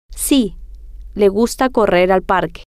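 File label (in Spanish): si le gusta correr parque, Spanish, female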